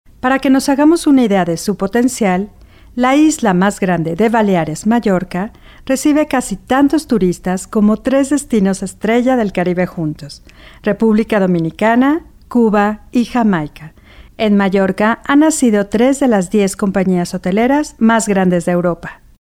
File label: locutora mexico mexican voiceover; locutora de México